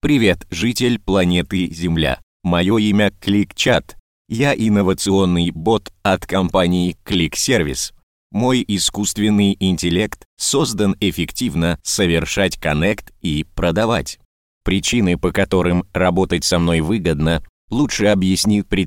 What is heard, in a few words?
M IVR